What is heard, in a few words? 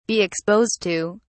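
"be exposed to", English, British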